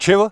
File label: peasant what; strategy